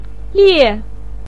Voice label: "lie4", Chinese pinyin voice

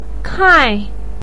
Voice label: "kai4", Chinese pinyin voice

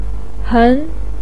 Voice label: "hen2", Chinese pinyin voice

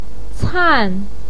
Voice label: "can4", Chinese pinyin voice

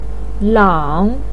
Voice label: "lang3", Chinese pinyin voice

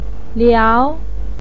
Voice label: "liao2", Chinese pinyin voice

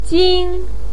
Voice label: "jin1", Chinese pinyin voice; 金平糖

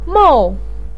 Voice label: "mou4", Chinese pinyin voice

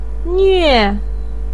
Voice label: "nuue4", pinyin

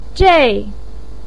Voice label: "zhèi", voice pinyin

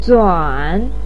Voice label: "zuǎn", voice pinyin

